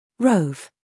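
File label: "scandal", American; rove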